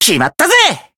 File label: finish, jp